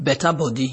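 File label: "beta body", Nigerian Pidgin, male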